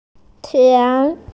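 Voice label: "tian2", Mandarin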